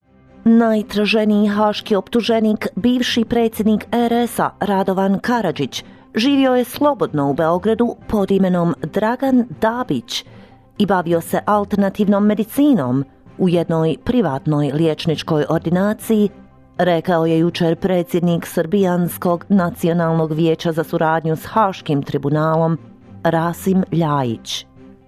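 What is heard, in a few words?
Bosnian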